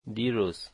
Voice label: yesterday, Dari

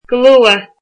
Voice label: soon, irish

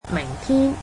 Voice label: "tomorrow", Cantonese

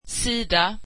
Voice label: swedish page

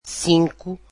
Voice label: "five", Portuguese

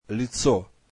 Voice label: russian face

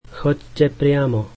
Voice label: slovak Go straight

